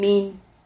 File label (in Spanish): "min", Silabas